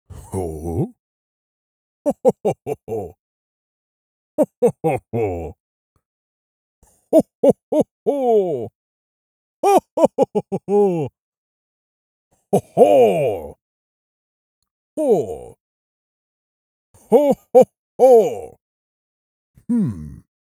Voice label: Santa Hohoho; Christmas